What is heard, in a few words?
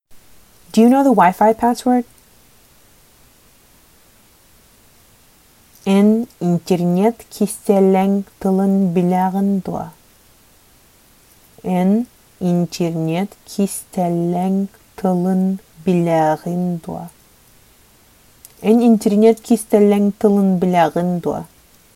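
Sakha Do you know the WiFi password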